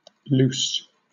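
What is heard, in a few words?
"luce", British English; pronunciations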